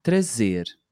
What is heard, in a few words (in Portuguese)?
"trazer", portuguese